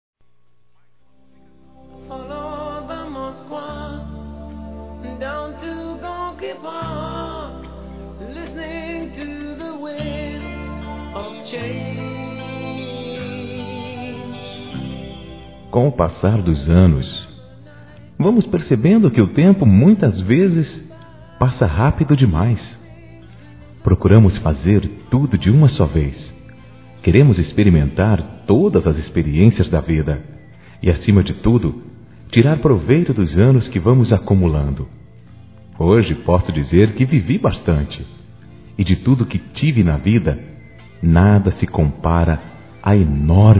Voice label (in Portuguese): Aniversário Neta Masculino